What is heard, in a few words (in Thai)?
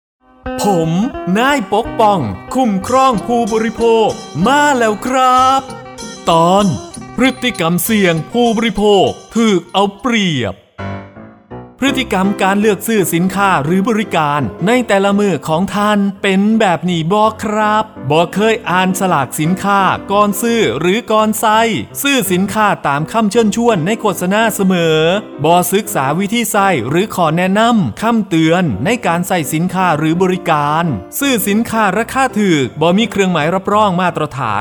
สปอตวิทยุ; ภาคตะวันออกเฉียงเหนือ; สื่อประชาสัมพันธ์; สคบ.; สำนักงานคณะกรรมการคุ้มครองผู้บริโภค